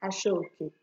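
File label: "ashooke", Nigerian Pidgin, female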